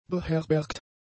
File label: "beherbergt", German; adjectives